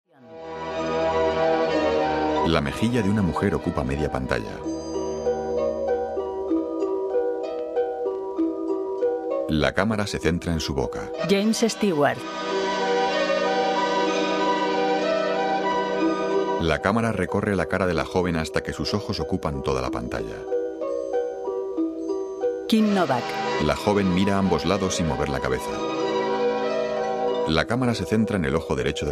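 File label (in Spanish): Audiodescripción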